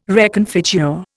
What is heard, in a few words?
"reconfigure", Indian